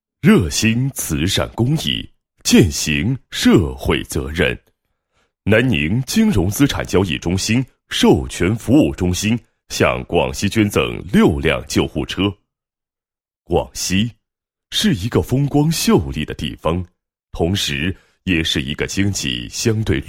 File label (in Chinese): 男 公益宣传 捐车活动 热心慈善公益 践行社会责任, 配音案例